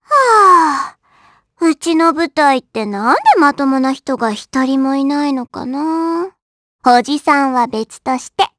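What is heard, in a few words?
vox dia Japanese; Pansirone; kingsraid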